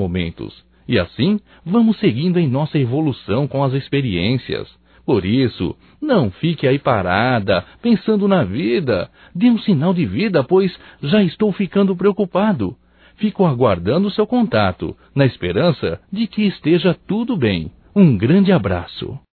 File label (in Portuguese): SAUDADES AMIGA, Só Voz, masc; Telemensagens; Telemensagens 2017